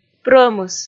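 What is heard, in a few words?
"прӧмыс", Komi, female; kpv audio dict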